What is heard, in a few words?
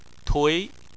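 "tui", Chinese, second tone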